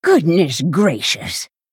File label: Female Tortollan Attack